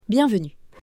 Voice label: "bienvenue", French

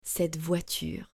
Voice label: "Cette voiture", French

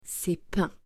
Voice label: "Ces pains", French

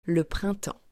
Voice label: "le printemps", French; days months seasons

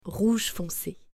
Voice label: "Rouge fonce", French

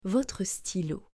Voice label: "Votre stylo", French

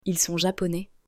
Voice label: "Ils sont japonais", French

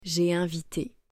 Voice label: "Jai invite", French

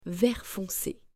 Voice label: "Vert fonce", French

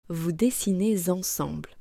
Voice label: "Vous dessinez ensemble", French

